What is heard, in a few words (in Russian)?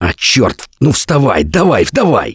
Revive Friend Loud, left4dead2 russian, Survivor Voice; Gambler